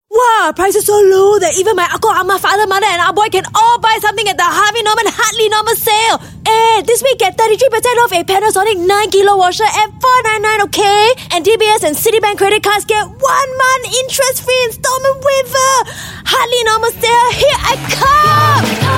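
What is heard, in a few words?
Asian English Sale Singlish Female; Hardly Normal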